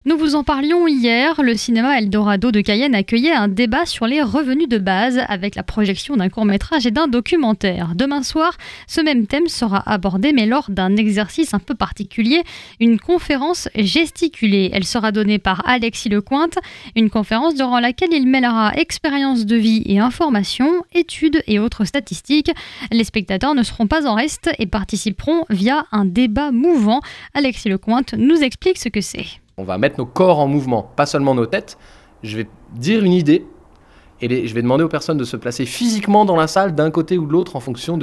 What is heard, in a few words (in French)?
EXTRAIT JOURNAL RADIO; EXTRAIT JOURNAL RADIO PEYI 18H CONFERENCE GESTICULEE